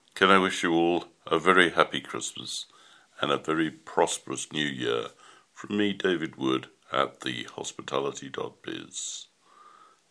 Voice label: happy christmas message